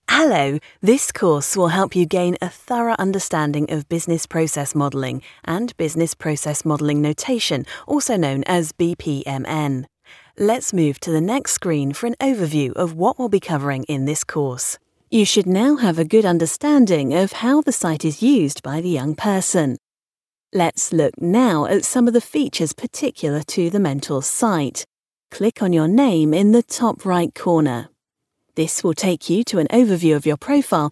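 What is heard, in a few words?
British English, Trocken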